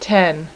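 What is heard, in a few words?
"10", female, voice numbers; Web Clip Empire 150,000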